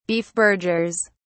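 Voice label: "beefburgers", English, British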